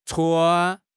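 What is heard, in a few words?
"chuan1", Mandarin Chinese; HSK2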